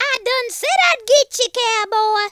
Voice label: "revenge", Cowgirl; speech